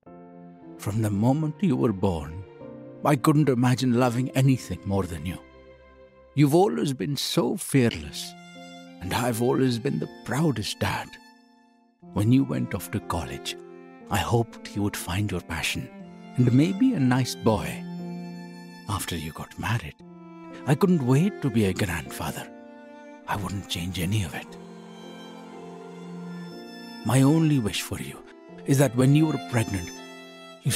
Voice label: english british political ads